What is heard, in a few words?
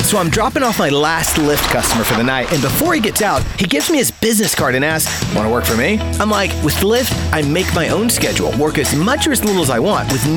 commercial